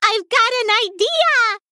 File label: Female Gnome Play